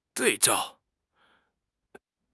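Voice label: "对照", Mandarin Chinese; HSK; HSK6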